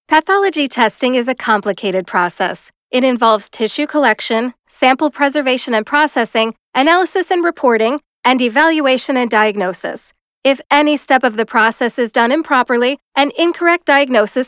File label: Medical Narration eLearning